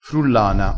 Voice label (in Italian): "frullana", vocabolario dialettale